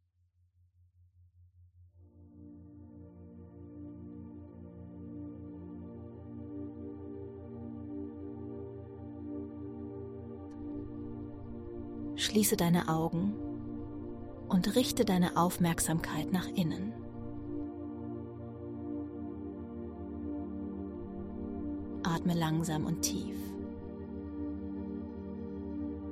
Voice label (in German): Meditation; Quantenintention